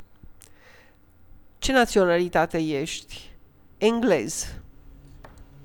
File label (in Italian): dati, rumeno; frasi utili